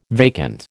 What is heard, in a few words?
"vacant", American